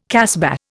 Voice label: "casbah", Indian